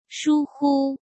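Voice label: "疏忽", Mandarin Chinese; chinese-flashcards; hsk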